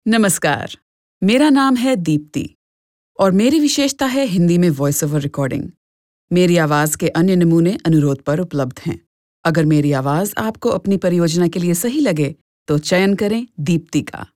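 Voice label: Hindi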